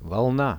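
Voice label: "wave", Russian; волна; nature; priroda; RUSSIAN VISUAL DICTIONARY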